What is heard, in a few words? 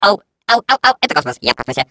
Space core space, ru; Core voice lines